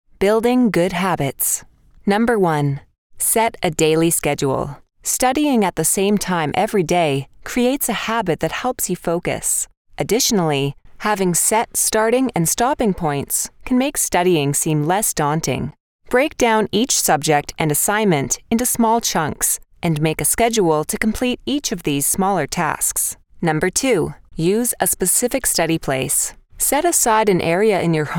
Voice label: english us and canada female e learning